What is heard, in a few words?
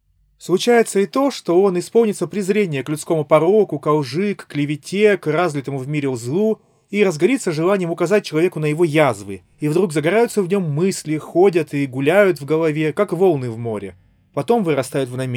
Recorder; Запись на профессиональный рекордер; Huawei FreeArc